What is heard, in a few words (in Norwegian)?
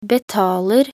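"betaler", Norwegian; talkbook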